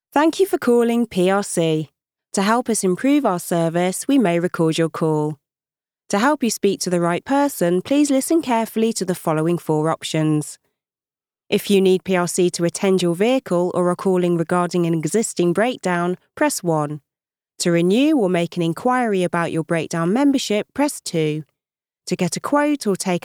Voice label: IVR, British English Voice Over female, UK accent; Native British English voice artiste